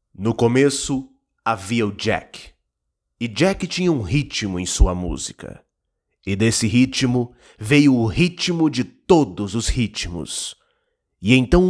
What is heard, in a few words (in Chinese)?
宣传片 活力 激情; 外语配音